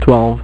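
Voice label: "twelve", male; MacCribbage; InterStellar MacCribbage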